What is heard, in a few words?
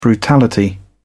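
"brutality", English, British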